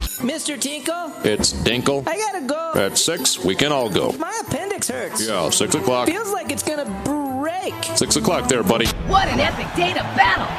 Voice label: Animation